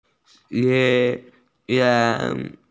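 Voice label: "responsibility", Mandarin; ze2ren4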